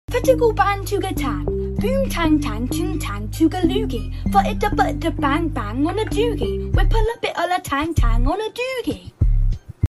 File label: british turtle rapping